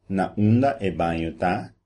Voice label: "toilet", Papiamento

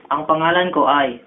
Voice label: my name is tagalog

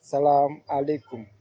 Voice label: hello, wolof

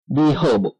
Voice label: how are you taiwanese

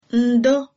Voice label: get well soon, igbo

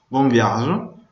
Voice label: bon voyage, venetian

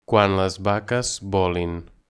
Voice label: "when cows fly", Catalan

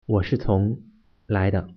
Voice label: im from, mandarin

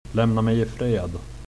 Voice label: leave me alone, swedish

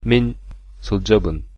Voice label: i'm from, sakha

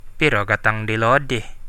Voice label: how much, central dusun

